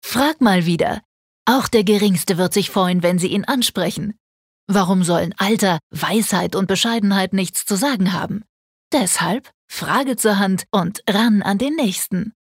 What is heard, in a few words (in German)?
Werbung, Dynamisch